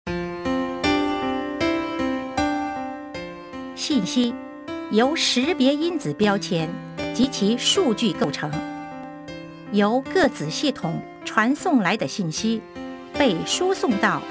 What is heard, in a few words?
chinese